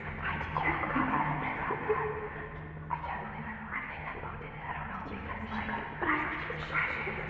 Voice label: blurry voice loop